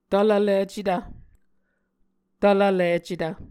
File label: "tvlvlicetv", muscogee